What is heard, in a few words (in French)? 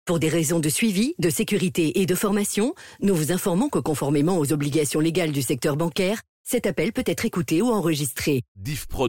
Annonce Predecroche; E-Monetique